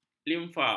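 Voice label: "limfa", Malay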